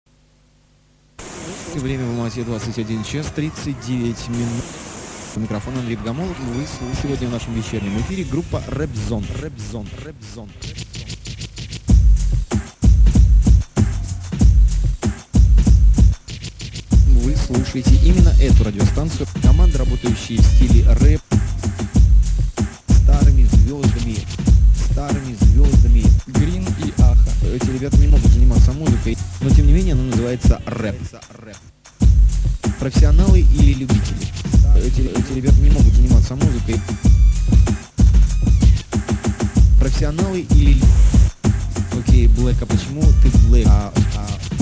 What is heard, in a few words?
rap insert